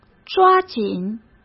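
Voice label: "抓紧", Mandarin Chinese; hsk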